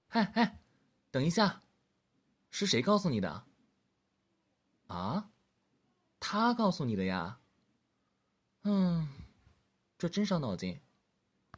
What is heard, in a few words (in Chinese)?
少年